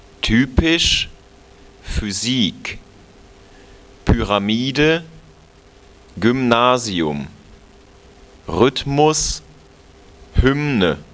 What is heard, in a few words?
"typisch", pronounce